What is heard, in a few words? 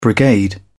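"brigade", British English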